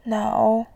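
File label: "nou", Mandarin, tone 3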